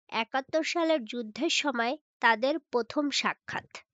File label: Female